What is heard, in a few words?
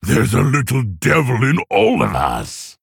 Male Orc Play